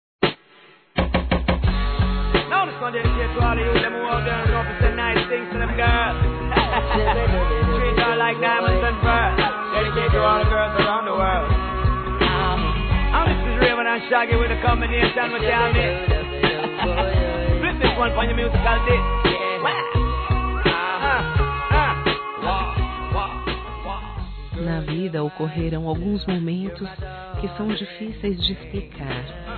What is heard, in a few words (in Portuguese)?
NAMORADO AMIGA F; Aniversários; Aniversários diversos; fem